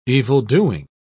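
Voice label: "evildoing", British English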